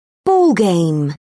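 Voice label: "ballgame", British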